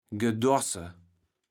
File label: "gedoarße", Kaevels Platt